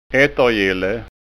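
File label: "ettergille", Scanian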